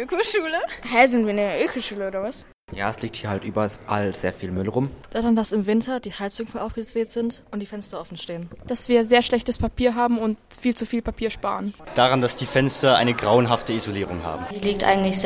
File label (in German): Werbung; Gymnasium Neureut